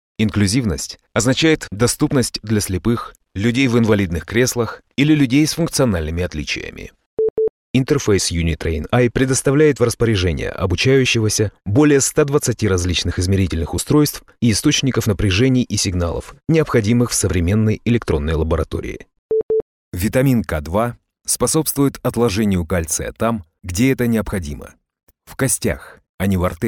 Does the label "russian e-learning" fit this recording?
Yes